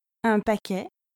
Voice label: "un paquet", French